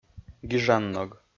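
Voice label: "гижанног", Komi, male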